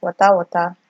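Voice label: "water water", Nigerian Pidgin, female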